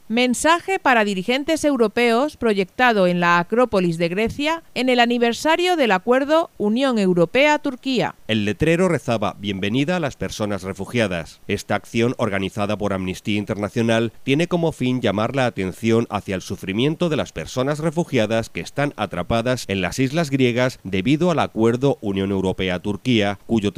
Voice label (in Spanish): informativo; ue turquia mensaje a dirigintes en aniversario acuerdo